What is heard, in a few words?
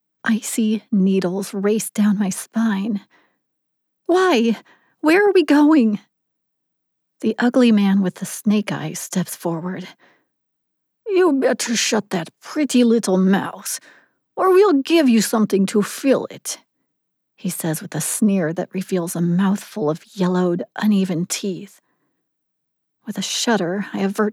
Romantic Suspense Russian Accent Sample